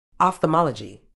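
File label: "ophthalmology", medical English, British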